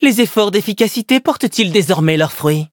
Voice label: levelup, fr; Cookie Run

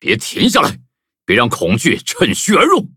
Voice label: loading, zh-hant

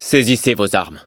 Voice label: battle, fr; cookie run

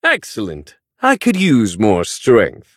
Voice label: level up, en

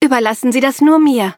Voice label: levelup, de

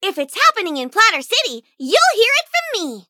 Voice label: eng menu idle; dj miya; Cookie Run

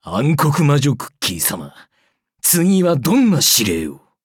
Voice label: levelup ja; cookierunwiki

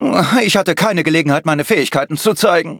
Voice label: defeat, de; Cookie Run

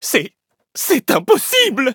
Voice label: defeat fr

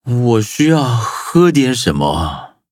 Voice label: loading, zh-hant; Cookie Run

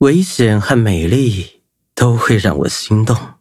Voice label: levelup zh-hant; Cookie Run

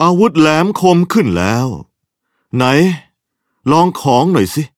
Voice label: levelup, th; cookie run

